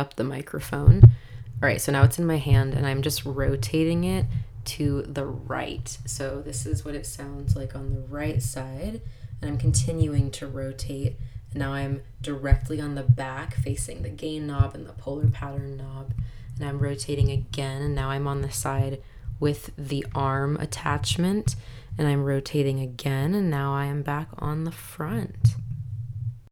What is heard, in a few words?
EPOS B20 stereo speaking mic sample